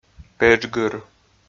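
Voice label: "педжгыр", Komi, male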